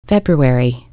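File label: "February", en; PBXNSIP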